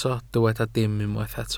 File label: "dim", Welsh